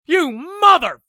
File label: battle cry; eft; usec